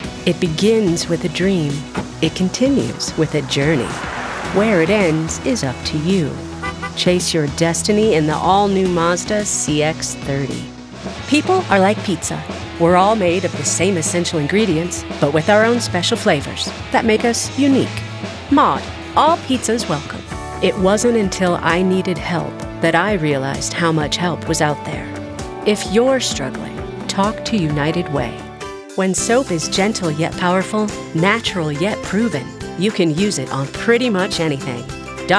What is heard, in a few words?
Commercial